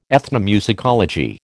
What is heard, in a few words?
"ethnomusicology", American